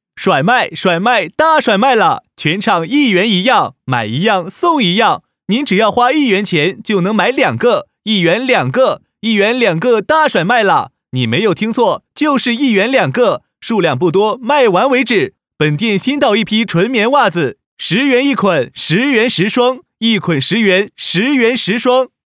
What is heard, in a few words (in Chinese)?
男 促销干音 甩卖甩卖大甩卖了全场一元